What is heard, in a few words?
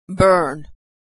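"burn", American English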